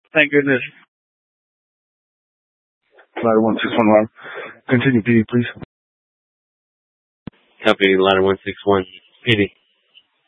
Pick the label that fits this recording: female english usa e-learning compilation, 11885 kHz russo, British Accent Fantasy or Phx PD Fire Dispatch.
Phx PD Fire Dispatch